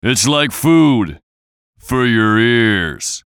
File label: VOICE COMICAL; Radio Voice -Food For Your Ears; Funny Sound